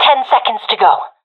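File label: ten seconds remaining, professional female; vo; agents; Source Model Packs; Counter Strike Model Pack; CS2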